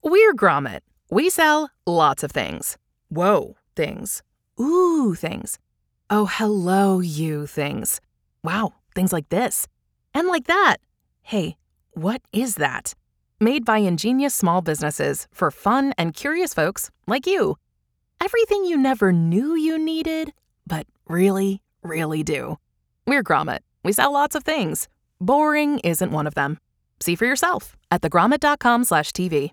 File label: Quirky American Promo Video